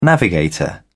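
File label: "navigator", British English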